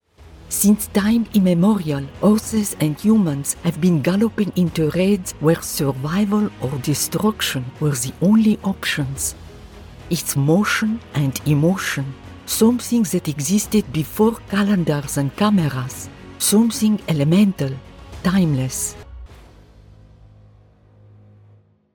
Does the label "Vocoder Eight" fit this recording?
No